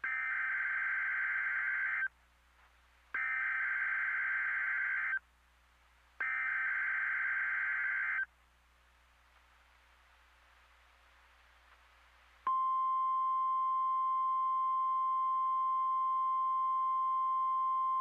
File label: NOAA Weather Radio Weekly Test; MKE-KEC60